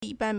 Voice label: "yi1ban1", Chinese